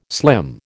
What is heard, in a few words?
"slim", American